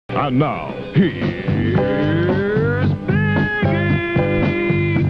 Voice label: show opener; big wilson